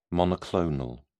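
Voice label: "monoclonal", English, British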